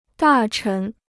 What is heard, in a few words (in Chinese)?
"大臣", Chinese